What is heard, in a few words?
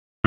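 Raleigh Police North District Tactical, p25; wake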